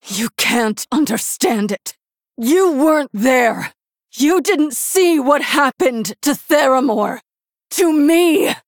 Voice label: Human Female; Jaina

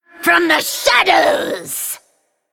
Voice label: Female Forsaken Attack

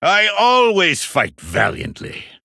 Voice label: Male Human; Tirion Fordring

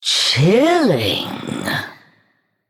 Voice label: Female Naga, Wow

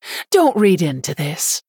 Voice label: Female Human TRIGGER Dragons Breath

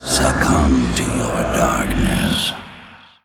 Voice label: Male

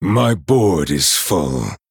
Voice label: Male Night Elf ERROR FULL MINIONS

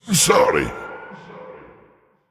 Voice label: Male Elemental, Emote Sorry

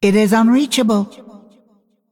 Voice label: Female Dragon

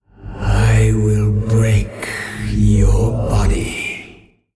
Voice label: male old god creature trigger special

